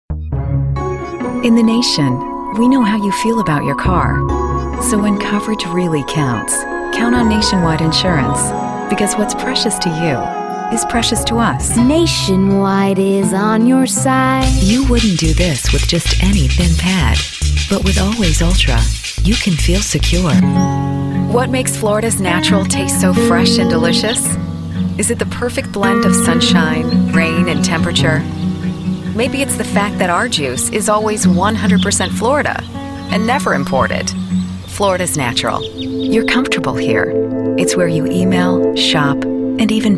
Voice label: Comm, commercial